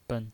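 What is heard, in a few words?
"ben", Mandarin Chinese, neutral tone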